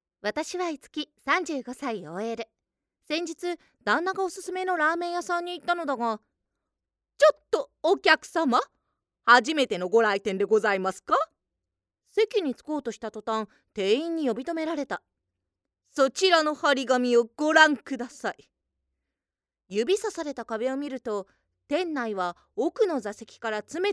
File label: female